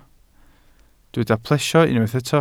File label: "plesio", welsh